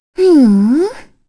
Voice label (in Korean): Vox Deny, kr; heroes; Kirze; kings raid